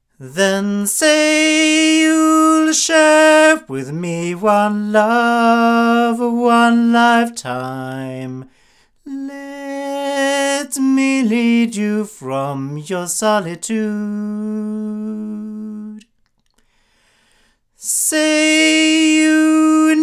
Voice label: sE 2A omni male; se electronics

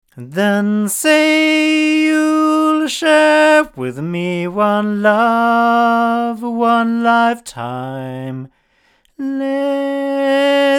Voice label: SKEC010B male; JJLabs

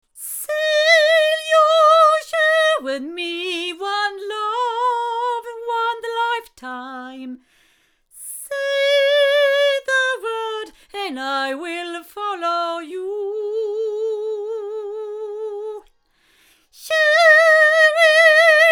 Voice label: ADK TC Mk9 female, microphones

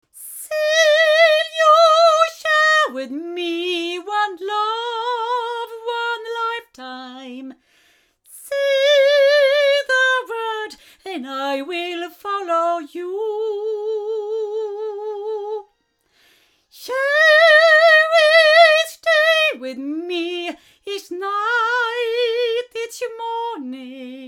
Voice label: Blue Yeti Pro 8 female

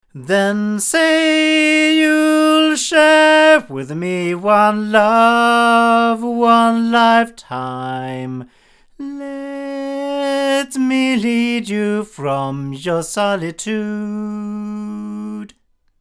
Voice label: Golden Age TC1 cardioid male

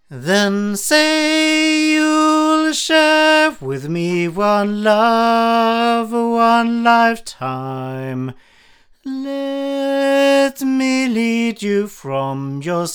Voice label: Blue Reactor 8 male

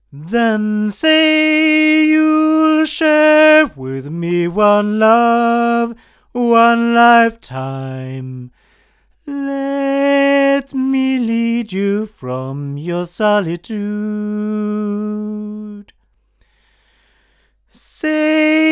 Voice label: cardioid male; Studio Projects LSD2

